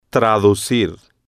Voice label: "traducir", Spanish

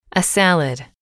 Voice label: "a salad", English

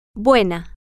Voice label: good, spanish, F

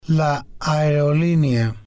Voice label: "la Aerolinea", spanish

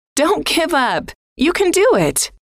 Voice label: don't give up you can do it, F

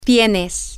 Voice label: you are with informal, spanish, F

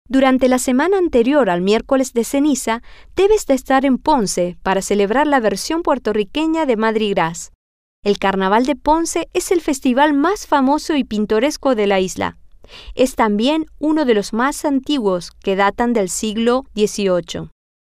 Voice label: Spanish; canaval De Ponce

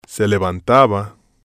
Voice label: "se levantaba", Spanish

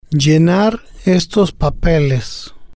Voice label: "llenar Estos Papeles", spanish

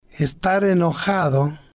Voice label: "estar Enojado", spanish

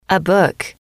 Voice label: a Book, F

